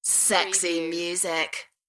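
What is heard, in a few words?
British female Sexy music; beanstalk audio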